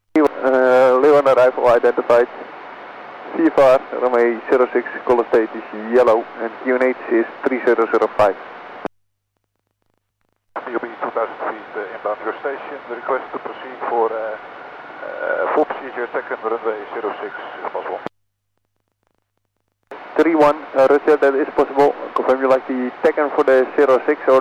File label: chinook arrival for refuel, tower; grizly31; 120.7mhz